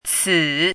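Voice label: "cǐ", Mandarin Chinese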